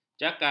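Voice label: "jakal", Malay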